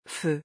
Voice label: "feu", French

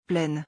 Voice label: "pleine", French

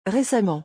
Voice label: "récemment", French

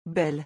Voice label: "belle", French

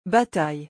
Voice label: "bataille", French

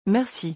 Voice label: "merci", French

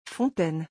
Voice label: "fontaine", French

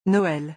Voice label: "noël", French

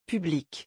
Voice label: "public", French

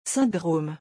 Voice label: "syndrome", French

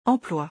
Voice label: "emploi", French

